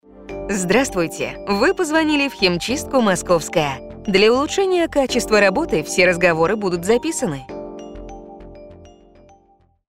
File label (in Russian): Приветствие на телефон химчистки; Голосовое приветствие, автоответчик или меню IVR